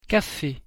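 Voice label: "cafe", French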